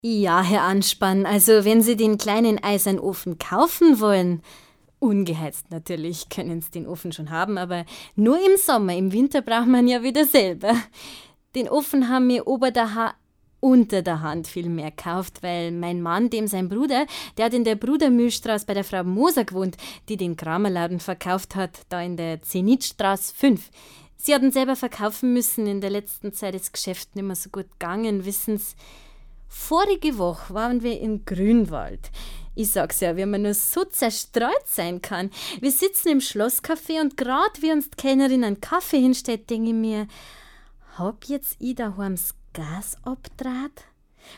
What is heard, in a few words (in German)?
Dialekt